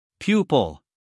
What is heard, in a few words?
"pupil", US male; 1000 hours